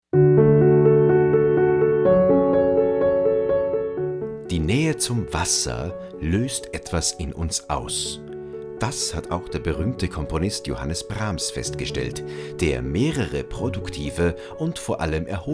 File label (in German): anmoderation; brahmsweg